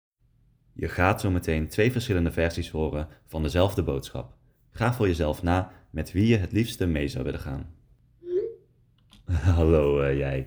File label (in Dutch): laag langzaam spreken